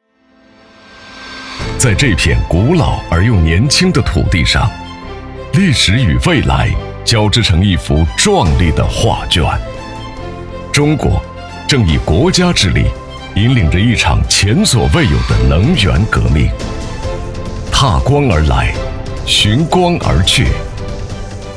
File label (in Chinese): 男, 专题; 重庆盛焰